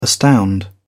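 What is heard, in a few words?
"astound", British English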